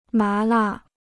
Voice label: "麻辣", Chinese

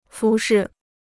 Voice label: "服饰", Chinese

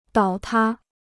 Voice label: "倒塌", Chinese

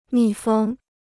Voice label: "密封", Chinese

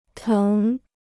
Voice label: "疼", Chinese

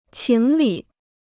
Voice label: "情理", Chinese

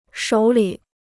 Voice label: "手里", Chinese

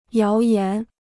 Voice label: "谣言", Chinese

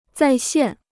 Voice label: "再现", Chinese

